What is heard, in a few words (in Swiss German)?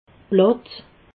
"platt", alsace